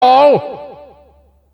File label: gamemode deathmatch, announcer; tf2cciv; open fortress